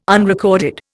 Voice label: "unrecorded", Indian